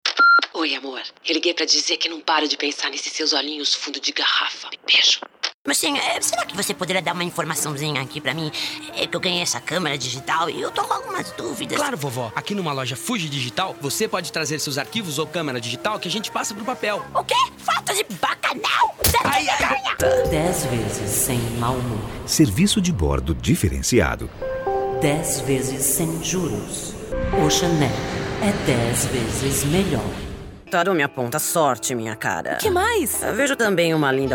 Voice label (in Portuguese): personagens caricatas